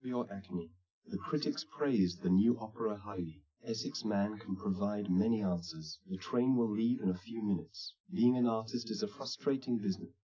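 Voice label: male speech; SiSEC10; underdetermined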